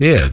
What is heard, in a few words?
"yea", vox; E-Lab; X Static Goldmine 2; akai